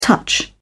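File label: "touch", English, British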